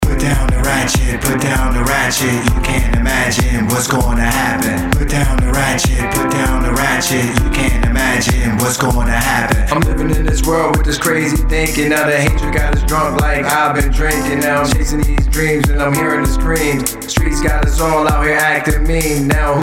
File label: promo